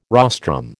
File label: "rostrum", American